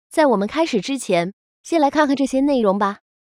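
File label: onboarding provision terms Chinese; Bixby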